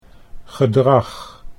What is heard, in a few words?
"gedrag", Dutch